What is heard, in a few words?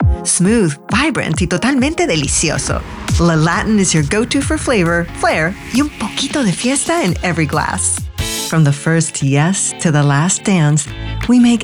Commercial